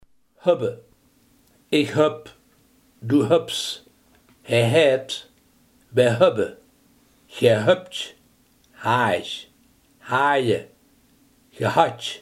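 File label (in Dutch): hebben, Limburgse gesproken woordenboeken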